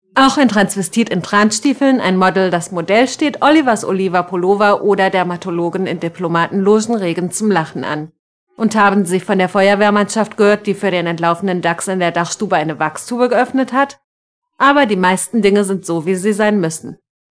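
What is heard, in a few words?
German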